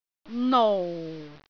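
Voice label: "nou4", Cantonese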